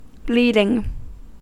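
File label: "bleeding", English, American accent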